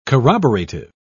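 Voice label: "corroborative", British English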